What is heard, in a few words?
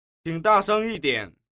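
qing da sheng yi dian, Chinese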